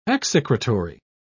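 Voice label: "execratory", British English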